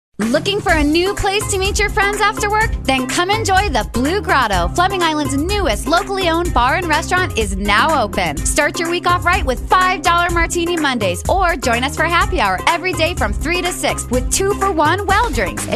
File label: RADIO; Blu Grotto Happy Hour